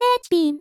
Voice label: "hspin", vocal; miku